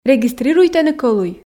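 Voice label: "регистрируйтӧны кӧлуй", female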